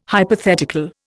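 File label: "hypothetical", Indian English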